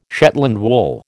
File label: "Shetland wool", American